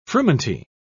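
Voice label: "frumenty", British English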